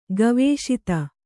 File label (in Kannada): "ಗವೇಷಿತ", Kannada